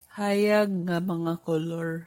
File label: Cebuano